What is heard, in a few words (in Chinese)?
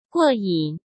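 "过瘾", Mandarin Chinese; chinese flashcards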